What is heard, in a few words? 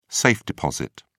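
"safe-deposit", English, British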